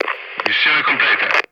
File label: Italian Mission Complete; male